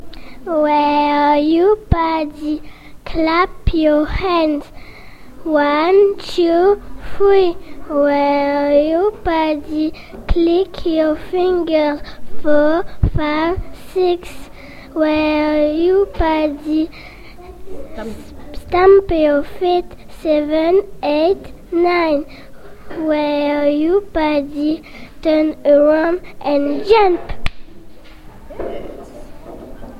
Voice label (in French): anglais